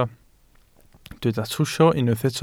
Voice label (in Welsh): "trwsio", Welsh